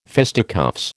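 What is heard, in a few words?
"fisticuffs", American English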